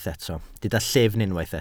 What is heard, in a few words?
"llyfn", Welsh